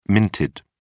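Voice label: "minted", British English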